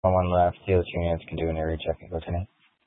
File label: Mesa PD Dispatch; Fiesta